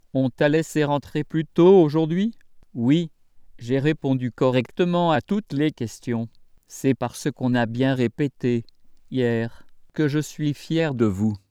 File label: dialog ircam synth